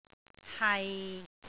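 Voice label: "hai6", Cantonese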